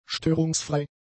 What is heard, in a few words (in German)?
"störungsfrei", German; adjectives